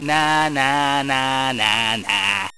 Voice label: very happy; Spades Deluxe; Jared

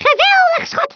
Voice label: "Perfect", Speech, Dutch; International; 11khz

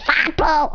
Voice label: "Hurry", Danish

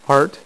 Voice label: "heart", dealer's speech; PowerPOKER Demo

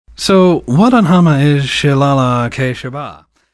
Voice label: Male Respond; Survivor The Interactive Game

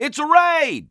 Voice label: detect generic, English Voice

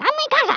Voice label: "Kamikaze", Speech, Dutch; International; 11khz

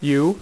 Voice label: "YOU", poker; iPoker 2000

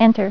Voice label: "Enter", female voice; TalkingKeys

